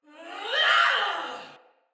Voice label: Attack Heavy, Soldier Female; Battle; Barks; Dialog; Sinecure Soldier Female A